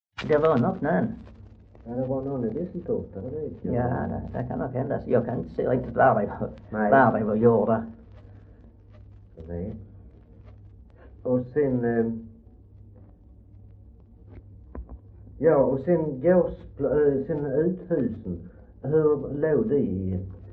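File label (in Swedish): Röke, Swedish dialect; Folkminnen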